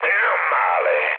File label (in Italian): trick, mood high, speech it; War Thunder